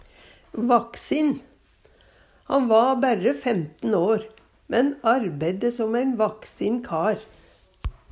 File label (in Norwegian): vaksin, Numedalsmål; Han va bærre 15 år, men arbeidde som ein vaksin kar.; Adjektiv